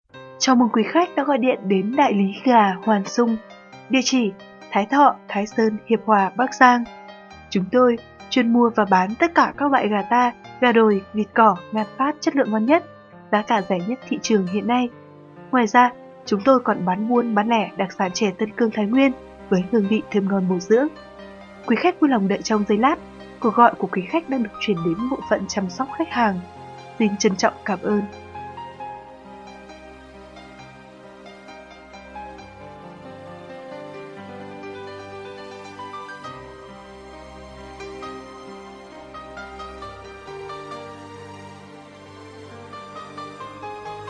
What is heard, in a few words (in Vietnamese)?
Nhạc Chờ Quảng Cáo; Đại Lý Gà Hoàn Sung